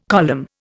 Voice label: "column", Indian

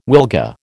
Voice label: "wilga", American

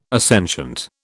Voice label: "assentient", British

